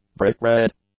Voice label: "brick-red", English; adjectives

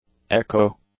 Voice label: "echo", English; nouns

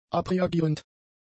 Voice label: "abreagierend", German; adjectives

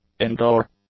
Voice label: "indoor", English; adjectives

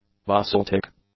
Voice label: "basaltic", English; adjectives

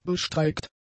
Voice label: "bestreikt", German; adjectives

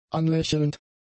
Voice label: "anlächelnd", German; adjectives

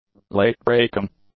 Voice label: "late-breaking", english; adjectives

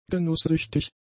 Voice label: "genusssüchtig", German; adjectives

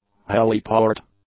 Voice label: "heliport", English

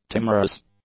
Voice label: "timorous", English; adjectives

